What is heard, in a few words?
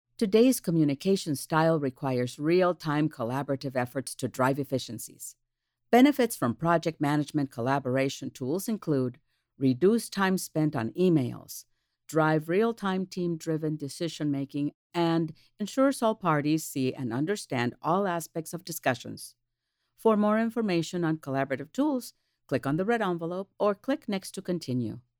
VO mexican